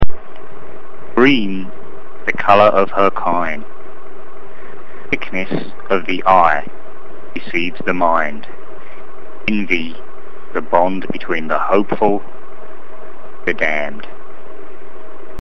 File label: Clipped